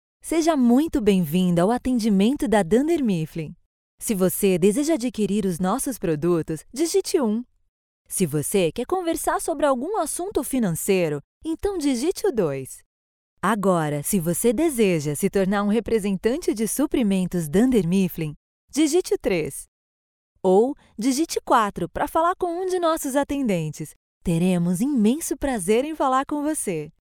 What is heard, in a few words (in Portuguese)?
Locucao; UraDunder